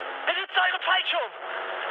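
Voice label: German bailout mood high, speech; War Thunder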